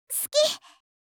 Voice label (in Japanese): 「好き…！」, 相づち・反応系【ポジティブ】